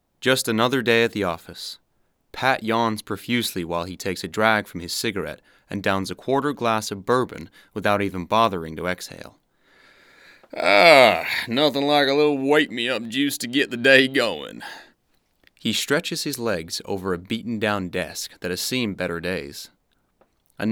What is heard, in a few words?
male english australian usa accent character narration